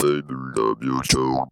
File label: "love you girl", Funky Vocals; USB Soundscan vol.18; AKAI